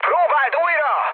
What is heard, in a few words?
bomb failure, speech hun; War Thunder